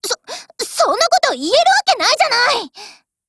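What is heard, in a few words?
DIALOGUE, Japanese; MicroUZI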